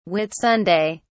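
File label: "whitsunday", British English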